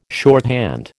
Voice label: "shorthand", American